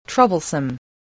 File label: "troublesome", voice